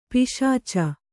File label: "ಪಿಶಾಚ", Kannada